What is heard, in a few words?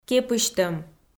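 "кепысьтӧм", Komi, female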